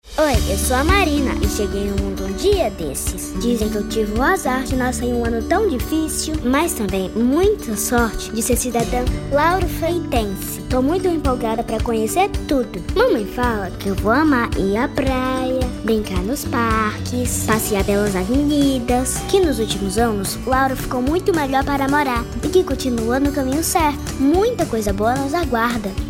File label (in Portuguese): spot